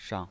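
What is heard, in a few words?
"shang", Mandarin Chinese pinyin, neutral tone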